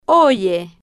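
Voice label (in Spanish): "oye", Spanish, female, slow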